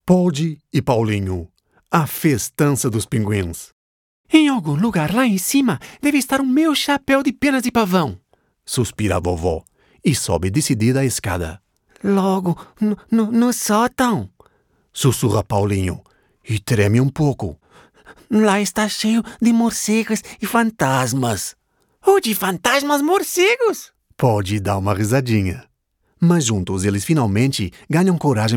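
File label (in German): Portugiesisch, Variabel; Fremdtake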